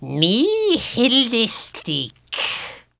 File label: Latin; vampire demo